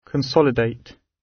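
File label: "consolidate", British English; Design and innovation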